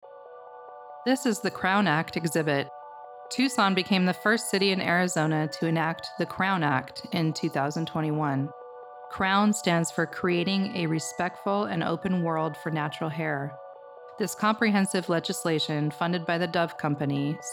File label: Voiceover; Crown Act